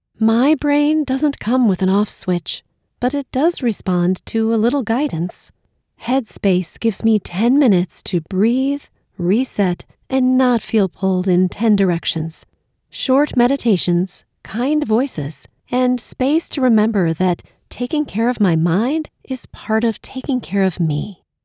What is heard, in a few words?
Ad; Headspace